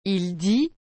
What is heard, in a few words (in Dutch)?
"il dit", frans; dire